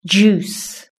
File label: "juice", british english